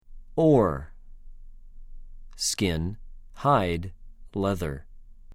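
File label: "עוֹר", Hebrew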